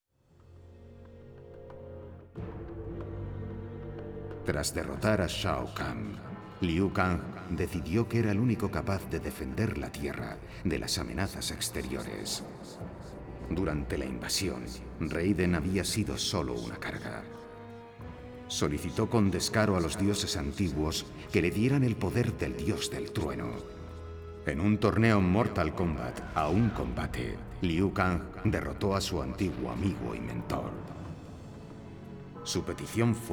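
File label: music voice-over endings Spanish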